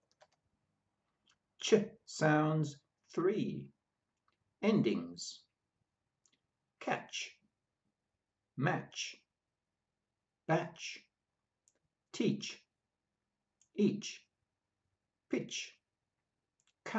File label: CH sounds, pronunciation of australian english